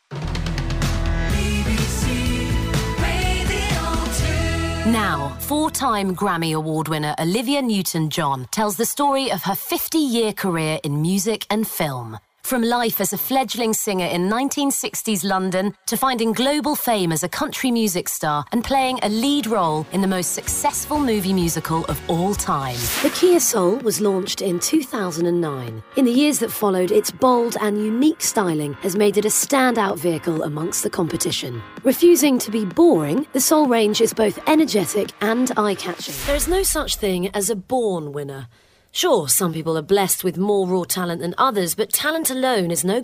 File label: narration